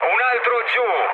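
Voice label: Italian player killed enemy mood low, speech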